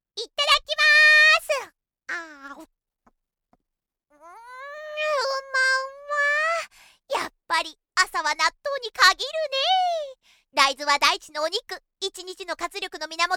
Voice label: female